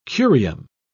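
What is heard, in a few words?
"curium", British English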